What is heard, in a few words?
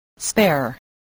"spare", English voice